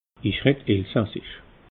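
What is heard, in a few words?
"reddelsassich", Alsatian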